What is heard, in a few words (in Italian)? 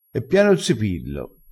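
"zipillo", dialetto crespinese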